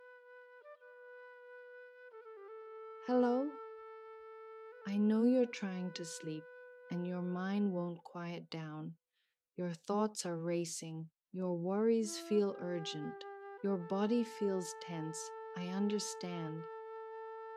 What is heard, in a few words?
Anxiety before sleep, meditation